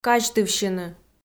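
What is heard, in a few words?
"казьтывсьыны", Komi, female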